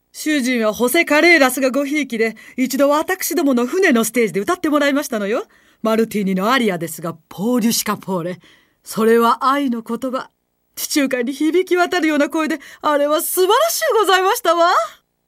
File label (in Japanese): 上流階級夫人